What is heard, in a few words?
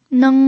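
"neng", pinyin, first tone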